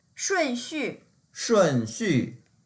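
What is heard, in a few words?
"shun4xu4", Mandarin Chinese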